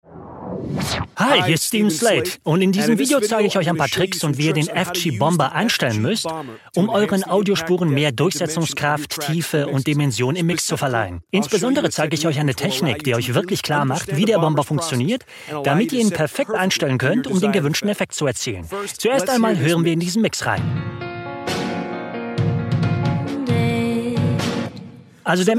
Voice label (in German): sync Voiceover; slatedigital